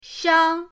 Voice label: "shang1", pinyin